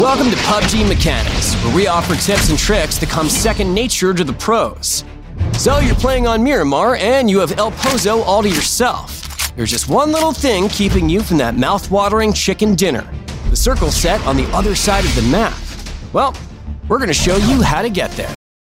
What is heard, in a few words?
narration sample; pubg